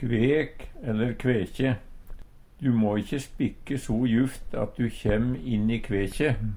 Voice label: kvekje, Numedalsmål

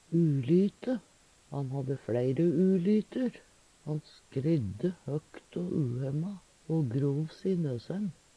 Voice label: "ulyte", Numedalsmål; Han hadde fLeire ulytur. Han skrydde høkt o uhemma o grov se i nøsøn.

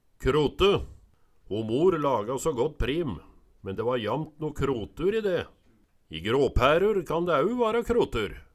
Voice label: krote, Numedalsmål

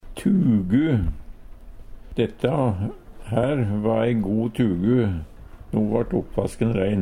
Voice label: "tugu", Numedalsmål; Hushald, mat, drikke

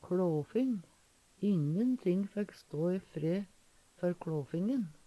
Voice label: "kLåfing", Numedalsmål

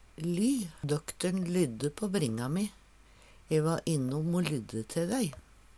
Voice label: ly, Numedalsmål; "lye" vert og bruka

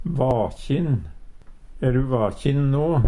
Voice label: "vakjin", Numedalsmål; E du vakjin no?; Adjektiv

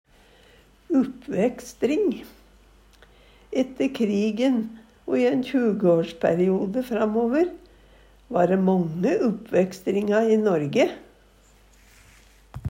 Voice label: uppvekstring, Numedalsmål

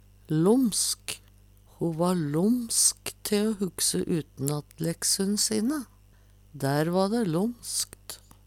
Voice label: lomsk, Numedalsmål; Adjektiv

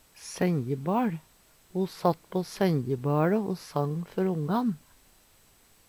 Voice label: senjebal, Numedalsmål; Ho satt på senjebaLe o sang før ongan.; Substantiv hokjønn

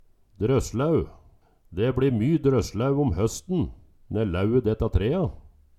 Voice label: "droslaeu", Numedalsmål

